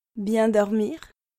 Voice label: "bien dormir", French

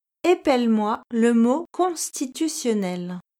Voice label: "épelle-moi", French

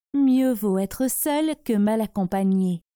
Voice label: "Mieux vaut être seul", French

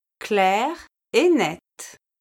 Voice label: "clair et net", French

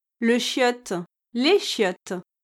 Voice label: "le chiotte les chiottes", French

